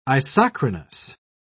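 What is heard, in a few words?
"isochronous", British English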